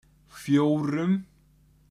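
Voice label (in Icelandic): "fjórum", Icelandic; tolur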